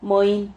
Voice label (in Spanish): "moi", Silabas